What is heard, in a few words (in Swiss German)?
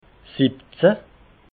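"siwwezehn", Alsatian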